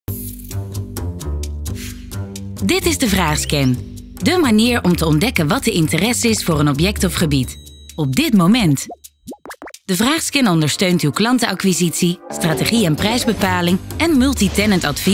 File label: Corporate; Funda